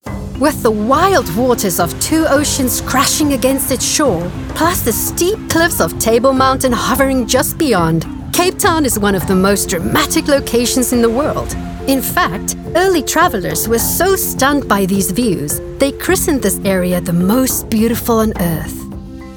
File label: narration